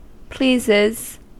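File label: "pleases", American English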